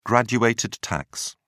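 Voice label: "graduated tax", English, British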